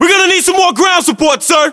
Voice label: voiceovers, US; english

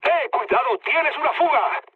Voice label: damaged water, mood med, speech; War Thunder

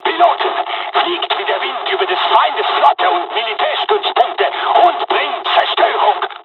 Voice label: japanese; first wave; War Thunder

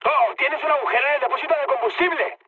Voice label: damaged oil, mood high, speech; War Thunder

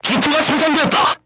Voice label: voice message weapon damaged, korean; crew tanks

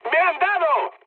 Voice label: aircraft damaged, mood high, speech; War Thunder

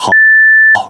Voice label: Speech Quick Commands Caramba Beep, ZH CN; World Of Warships

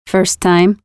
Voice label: Lose, Voiceovers; Project Argo

